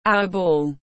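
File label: "our ball", English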